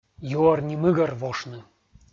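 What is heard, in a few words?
"юӧр ни мыгӧр (вошны)", Komi, male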